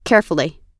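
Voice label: "carefully", English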